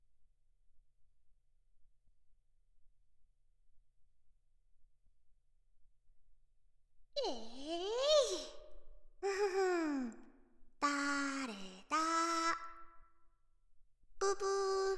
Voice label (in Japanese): 耳舐めローション手コキ 効果音なし, ASMR